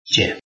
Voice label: "jie5", Chinese

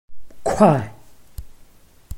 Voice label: "kuai4", Chinese

